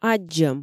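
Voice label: "аддзӧм", Komi, female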